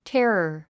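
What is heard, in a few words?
"terror", English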